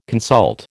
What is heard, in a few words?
"consult", American